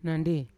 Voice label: "nandi" narrow, Yawa

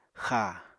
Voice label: "xáa", Apatani

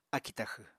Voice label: "akì taxw", apatani

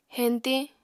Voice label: "heñtiñ", apatani

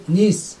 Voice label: "two", Chug

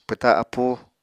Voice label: "pwta appú", Apatani

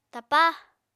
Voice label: "tapa", Apatani

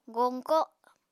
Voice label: "goñko", Apatani

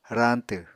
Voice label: "rañtw", Apatani

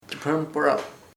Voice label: "turtledove tórtola", chamacoco

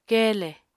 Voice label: "keele", Apatani

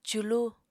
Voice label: "culu", apatani

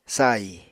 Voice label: "sahi", Apatani

